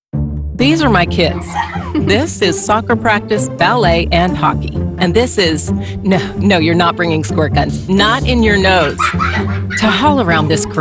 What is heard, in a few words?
english us and canada female commercial